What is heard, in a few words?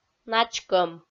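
"начкӧм", Komi, female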